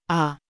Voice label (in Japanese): イギリス英語 a